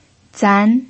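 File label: "zan2", Mandarin Chinese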